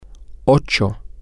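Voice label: Spanish, female, male; eight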